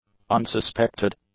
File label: "unsuspected", english; adjectives